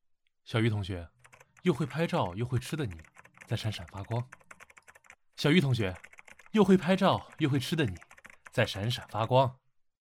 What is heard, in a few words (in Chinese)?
男 素人男生; 素人风格男声